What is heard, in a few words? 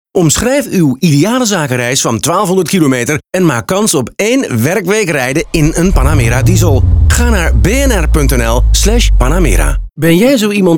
radio commercial